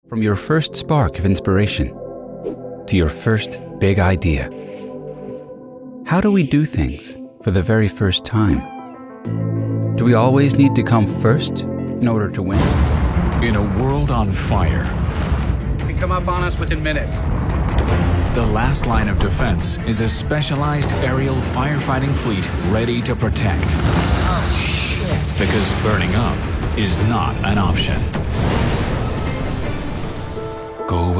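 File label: Narration American Man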